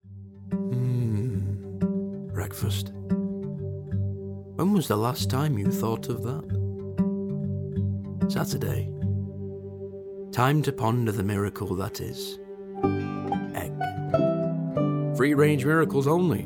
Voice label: Ad; Lurpak

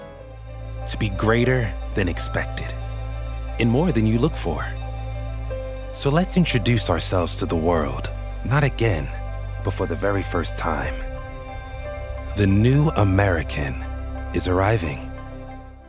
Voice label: Commercial; The New American

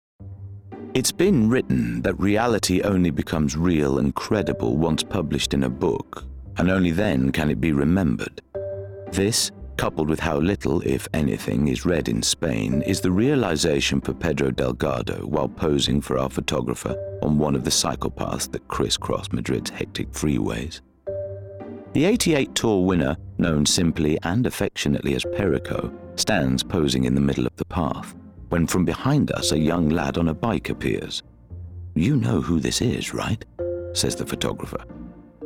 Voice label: Narrative; Pedro Delgardo

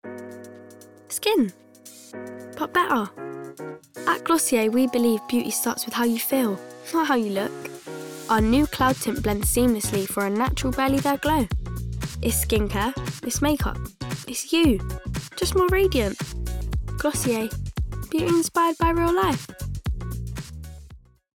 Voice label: Ad; Glossier

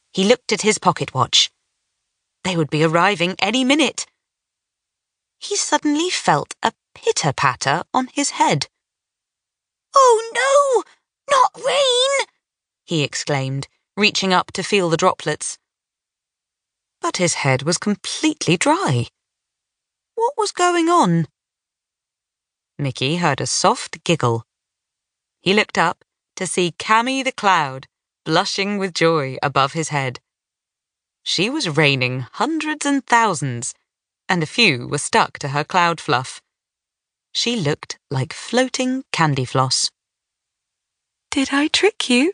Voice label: super cute audiobook sample

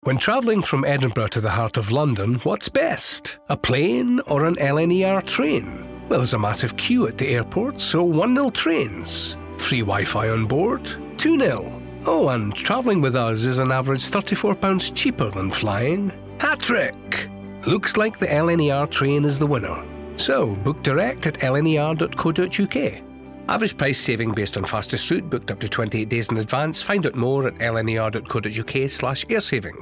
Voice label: Scottish, Radio Mix; LNER; Anti Air Value

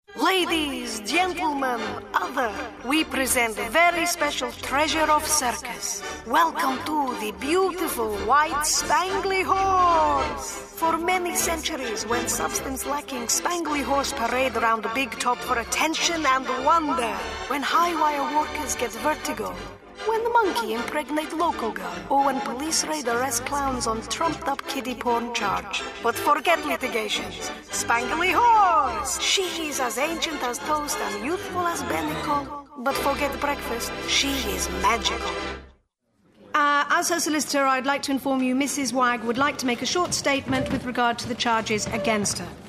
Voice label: Character

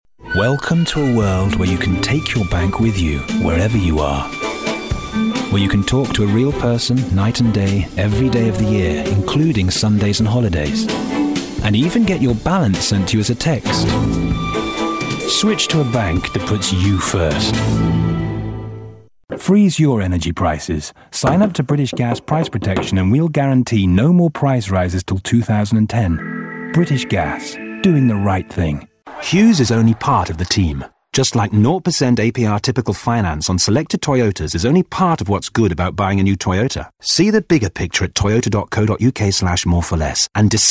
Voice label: commercial reel

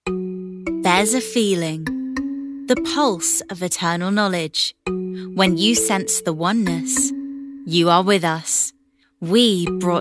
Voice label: Documentary

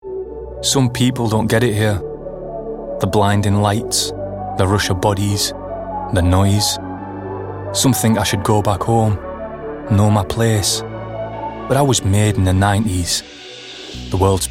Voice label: Ad; Reebok

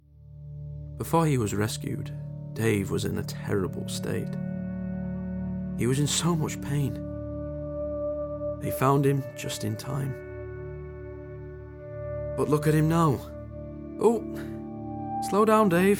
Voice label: Sponsor a Dog Ad

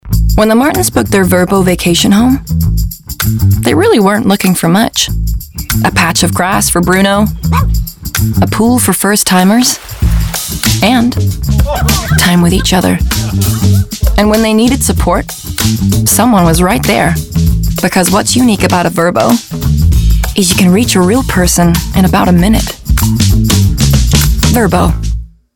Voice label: US Accent; VRBO; VRBO COMMERCIAL US ACCENT

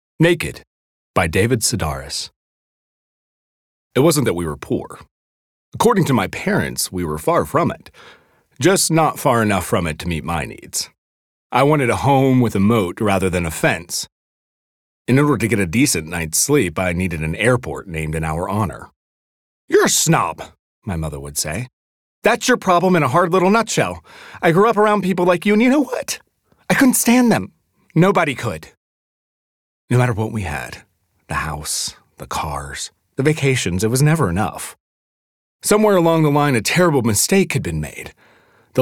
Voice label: Audiobook; Naked

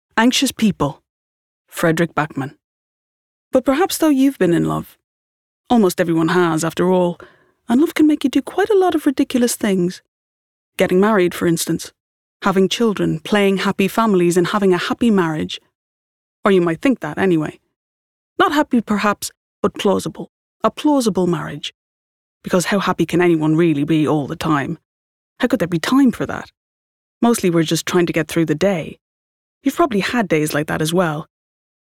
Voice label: Audiobook; Anxious People

